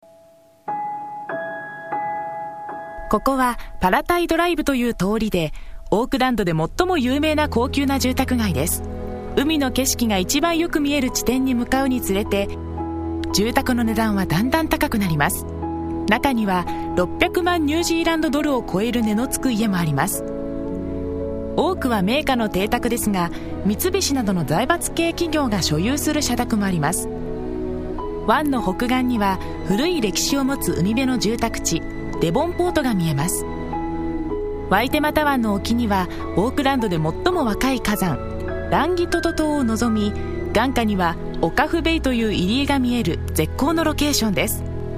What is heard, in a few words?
japanese; grayline rangitoto